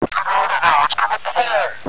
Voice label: blow, zombie male